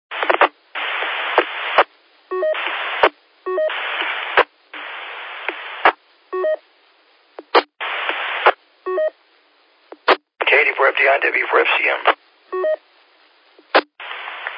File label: qso rec repeater logic